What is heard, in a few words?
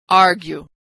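"argue", American English; Consonant Sound Pair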